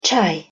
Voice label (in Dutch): "чай", Avaars; thee-in-andere-talen